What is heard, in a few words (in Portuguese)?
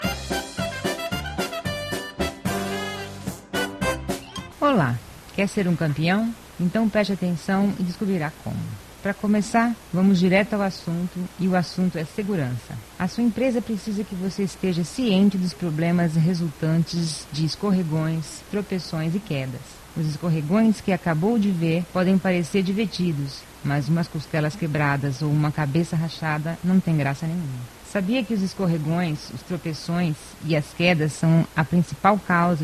girl (brazil), portuguese